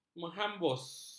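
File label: "menghambus", Malay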